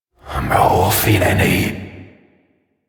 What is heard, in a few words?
Possessed Vocal Enemy Spotted; Enemies; Warframe; Priest Quest; Possessed Red Veil